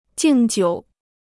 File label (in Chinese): "敬酒", Chinese